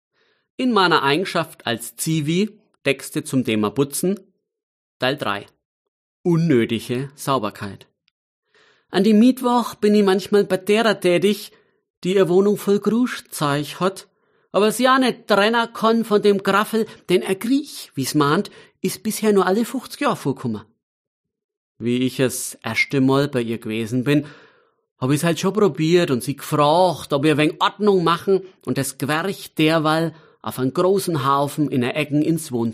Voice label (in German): Mundart